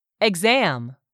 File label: "EXAM", english; 3000 words